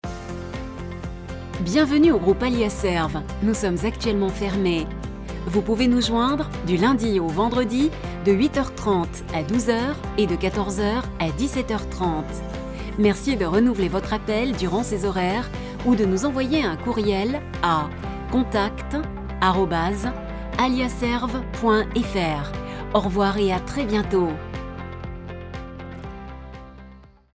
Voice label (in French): Repondeur